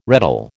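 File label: "reddle", American English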